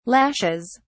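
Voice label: "lashes", British English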